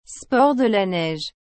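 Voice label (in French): "sports de la neige", French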